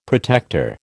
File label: "protector", American